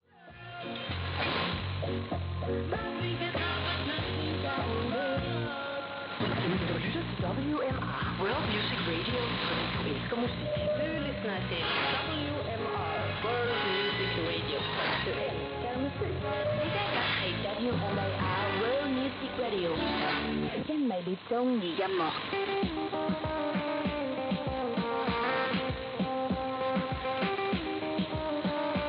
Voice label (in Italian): 15700 kHz, inglese; World Music Radio; Perseus; Schwarzsee